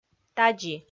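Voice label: "тадзи", Komi, female; audio dict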